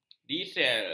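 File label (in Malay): "diesel", Malay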